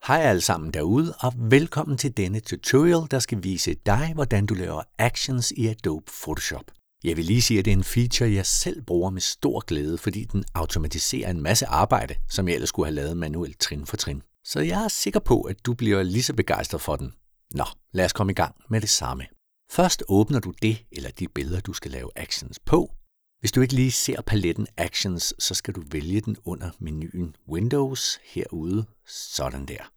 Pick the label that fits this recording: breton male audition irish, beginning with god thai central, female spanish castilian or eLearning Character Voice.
eLearning Character Voice